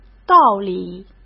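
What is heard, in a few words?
"道理", Mandarin Chinese; hsk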